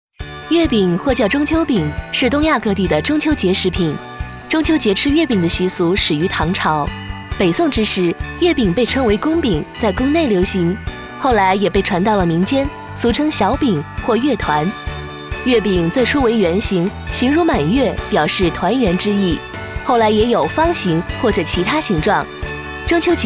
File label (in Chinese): 旁白时尚